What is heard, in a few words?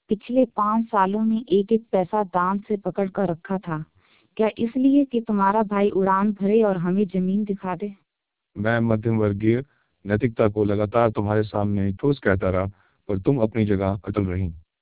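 speech TWELP 2400 bps, Hungarian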